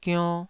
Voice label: "gionn2", taiwanese